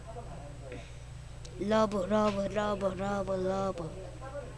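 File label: "rub", spoken word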